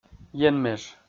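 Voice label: "енмеж", Komi-Zyrian, male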